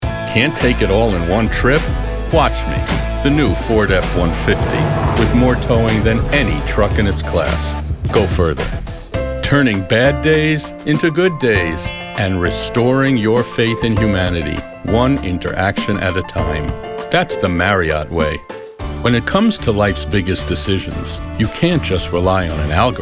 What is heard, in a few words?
Commercial